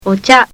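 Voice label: "ocha", Japanese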